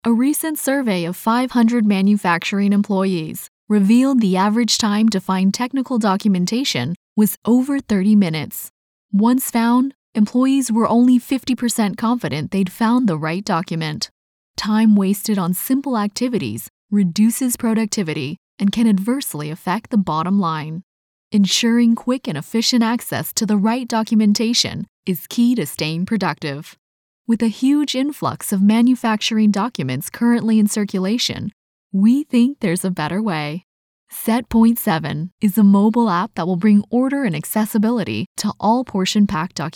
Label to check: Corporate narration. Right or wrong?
Wrong